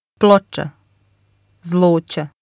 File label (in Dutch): "ploca", bosnisch; uitspraak; bord/tegel